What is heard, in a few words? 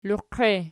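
"loqie" nominative, Archi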